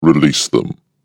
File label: Evil Voice "Release Them"; recorded voice